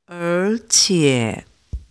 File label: chinese pronunciation er